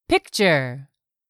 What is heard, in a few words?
"picture", English; 3000 words